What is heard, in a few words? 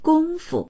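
"gong1fu", Mandarin Chinese; gōngfu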